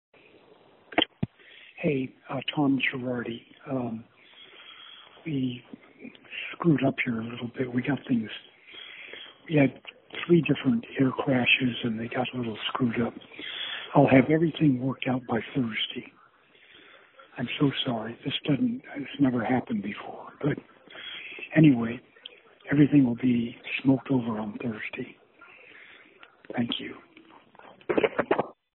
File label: voicemail